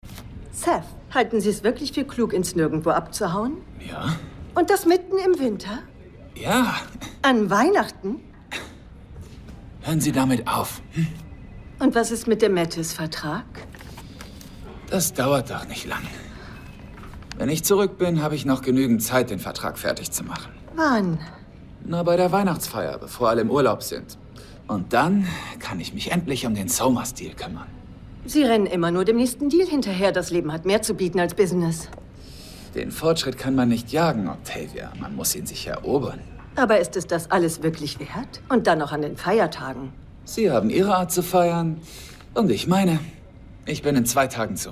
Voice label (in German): Synchron, Lip-Sync (Synchron), German